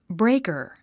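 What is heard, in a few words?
"breaker", English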